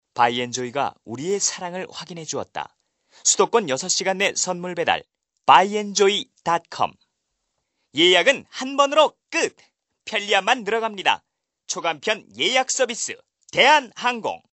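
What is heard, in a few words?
Korean male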